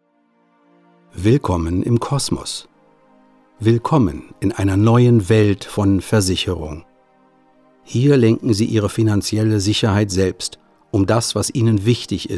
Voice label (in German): Werbung; CosmosDirekt